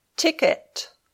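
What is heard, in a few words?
"ticket", English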